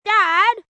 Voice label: "Dad" Question, child, boy; Kids; Talking

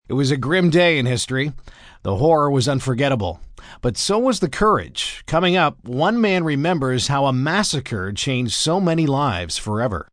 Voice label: Grim Day M, Broadcast, announcer, breakaway, male; Broadcast Sound Effects

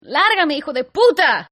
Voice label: Female Pig Spanish, Hispanic Female Saying; Attitude

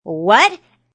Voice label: Young Lady Says What; Talking

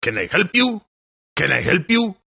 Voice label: Toughguy Help English, Tough Hispanic Male; Etiquette Sound Effects

